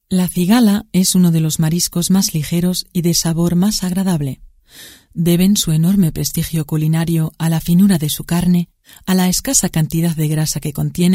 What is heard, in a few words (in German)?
spanisch sachtext